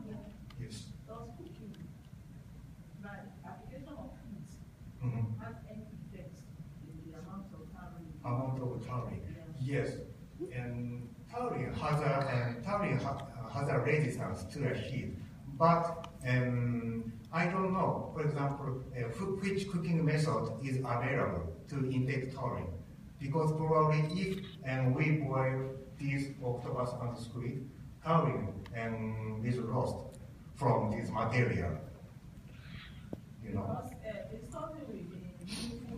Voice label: presentation voice